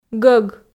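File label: "гӧг", Komi, female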